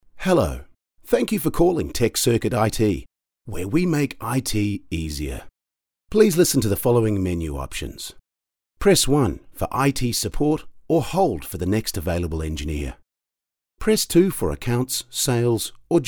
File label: Telephone Main Menu